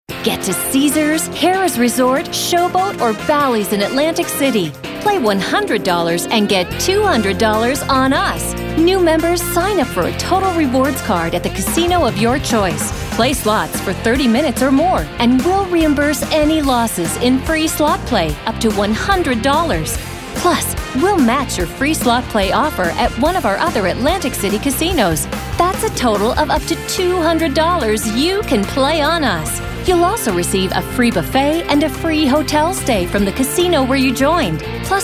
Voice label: High Energy

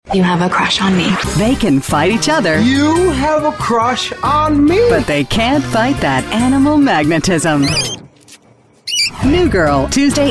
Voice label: TV Promo

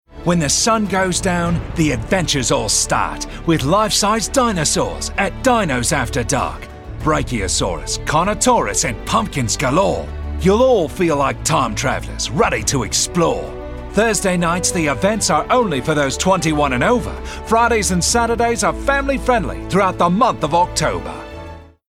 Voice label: Accent Australian

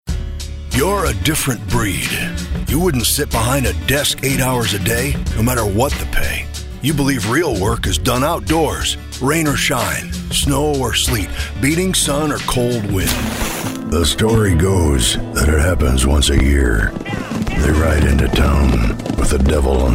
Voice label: Raspy

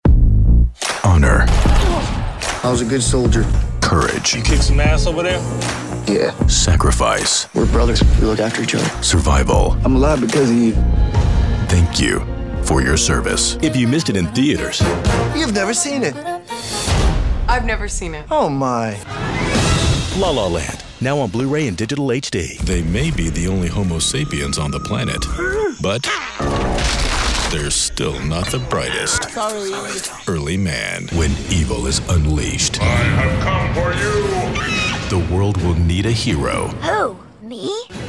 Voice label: Trailer

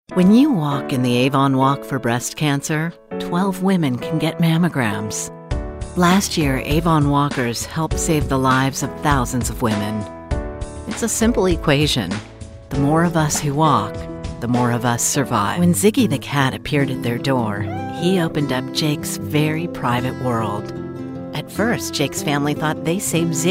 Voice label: Warm Caring

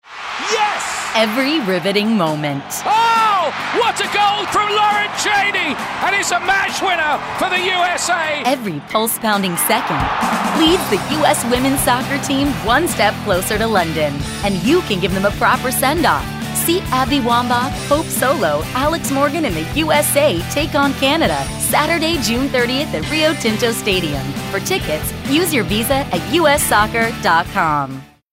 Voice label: Sports Promo

